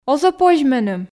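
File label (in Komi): оз-ӧ позь меным, Komi, female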